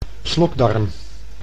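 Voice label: "slokdarm", Dutch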